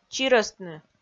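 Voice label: "чирӧстны", Komi, female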